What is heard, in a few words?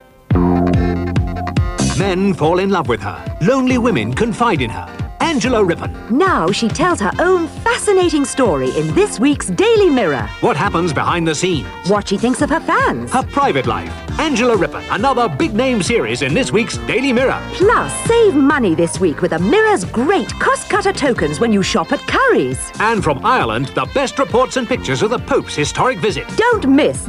Radio Advert; Radio Hallam; Daily Mirror; Angela Rippon